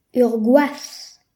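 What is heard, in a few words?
"spear", Breton; gcompris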